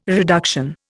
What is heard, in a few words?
"reduction", Indian